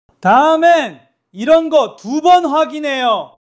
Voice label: anger

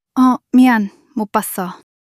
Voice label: fluster

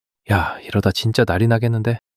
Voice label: fluster

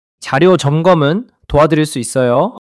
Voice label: neutral

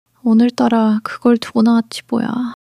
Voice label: sadness

